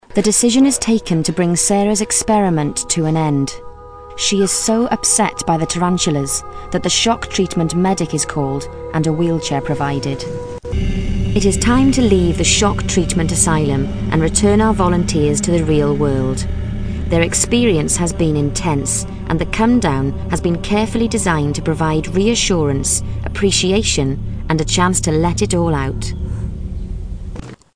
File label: narration